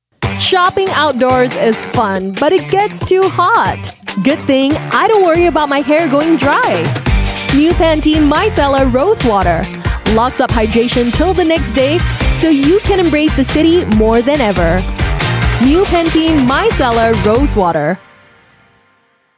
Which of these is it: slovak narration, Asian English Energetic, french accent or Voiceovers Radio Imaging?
Asian English Energetic